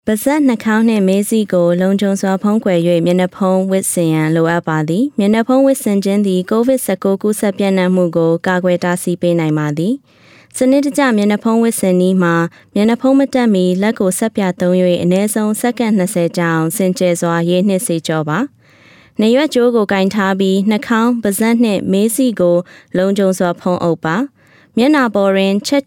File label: PSA Burmese How to Wear a Mask; coronavirus